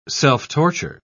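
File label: "self-torture", British English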